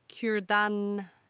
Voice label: kurdan, toothpick in turkish